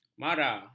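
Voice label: "mara", Malay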